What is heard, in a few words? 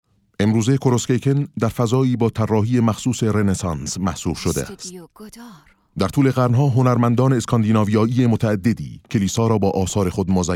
documentary